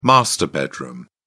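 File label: "master bedroom", British English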